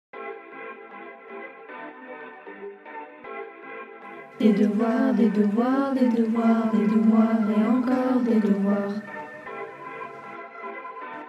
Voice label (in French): Projet voix eleves